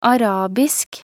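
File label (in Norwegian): "arabisk", Norwegian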